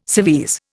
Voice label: "civvies", Indian